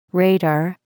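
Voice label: "radar", English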